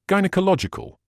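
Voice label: "gynaecological", British English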